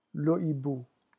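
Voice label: "le hibou", French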